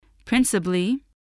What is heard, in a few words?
"principally", voice, spelling